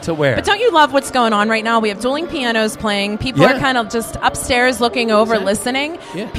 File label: plosives original; YaJagoff